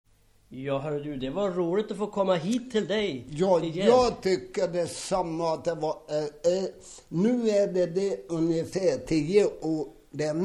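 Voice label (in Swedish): Sundborn Dalarna, dialekter, Svenska sagor; Folkminnen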